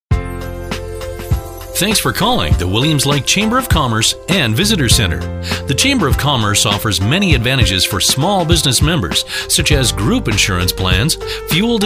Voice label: On Hold Messaging; On Hold Messaging demo